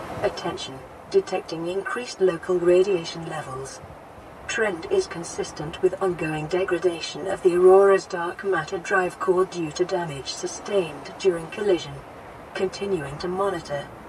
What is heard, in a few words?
AI Speech